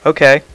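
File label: "Okay", male; VOICE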